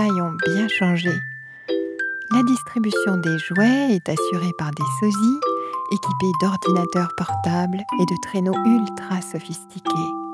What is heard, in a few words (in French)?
RADIO Conte de Noël; ARTE; Largué